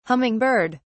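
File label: "humming bird", English, British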